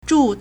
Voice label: "zhu4", Chinese

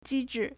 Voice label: "ji1 zhi4", Chinese

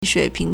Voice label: "shui3ping2", Chinese

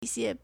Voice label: "yi1 xie2", Chinese

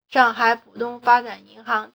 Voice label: "shang4hai3pu3dong1fa1zhan3yin2hang2", Chinese

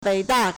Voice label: "wei3da4", Chinese

